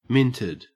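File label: "minted", Australian English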